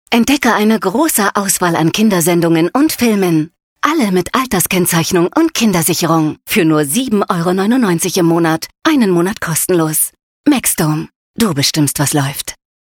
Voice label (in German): Trailer; Maxdome Kindersicherung